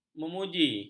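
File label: "memuji", Malay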